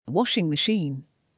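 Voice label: "washing machine", British English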